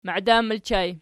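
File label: "maidan" ergative plural, Archi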